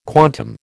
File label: "quantum", American